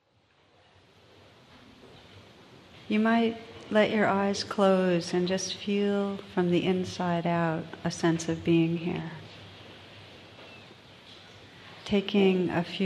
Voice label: meditation; Tara Brach; IMCW